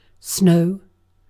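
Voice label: "snow", British English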